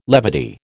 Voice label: "levity", American

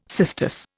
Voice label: "cistus", Indian

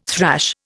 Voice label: "thrash", Indian

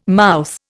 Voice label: "mouth", Indian

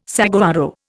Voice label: "saguaro", Indian

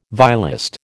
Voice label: "violist", American

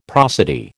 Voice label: "prosody", American

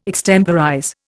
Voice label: "extemporize", Indian

